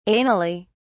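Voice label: "anally", British English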